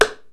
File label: VOX RAP; RAP CITY 1